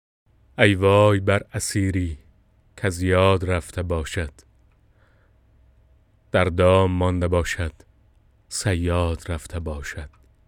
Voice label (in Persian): Dari